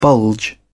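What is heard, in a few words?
"bulge", British English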